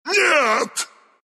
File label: death, ru; dota2